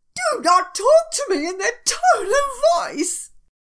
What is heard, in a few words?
tone